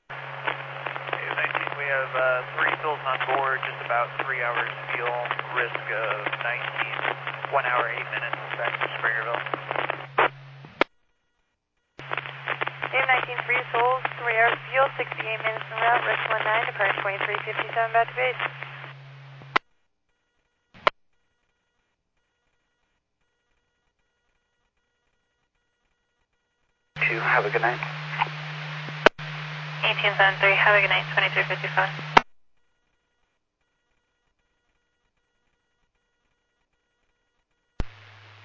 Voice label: Medevac AIR Amb, scanner; NativeAirAmb